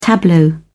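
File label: "tableau", British English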